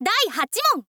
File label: "daihachimon", girl; voice